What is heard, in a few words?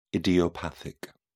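"idiopathic", English, British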